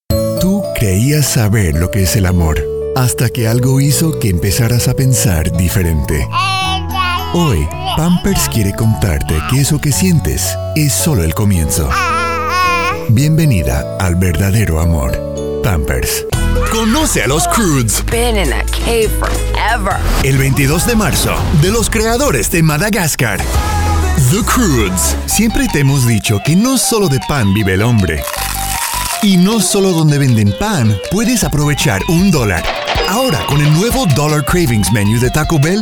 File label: languages male spanish